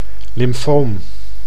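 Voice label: "lymfoom", Dutch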